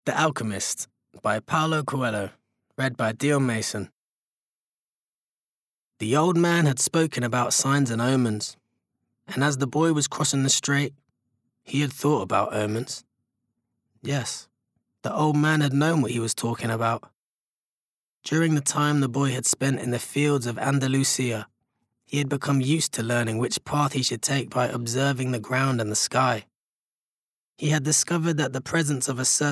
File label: Bookread